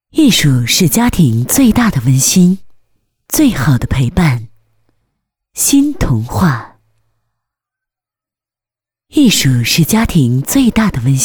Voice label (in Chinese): 女-广告-温暖品质; 艺术